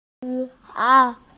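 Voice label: "like", Mandarin, hearing-impaired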